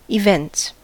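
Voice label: "events", American English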